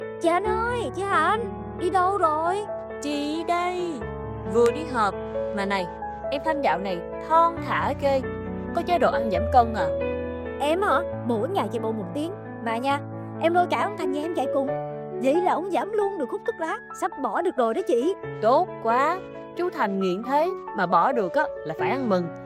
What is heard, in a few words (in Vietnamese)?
giọng nam; VAI TRÒ CỦA NGƯỜI ĐỨNG ĐẦU CƠ QUAN; clip phat thanh ve phong chong tac hai cua thuoc la 2024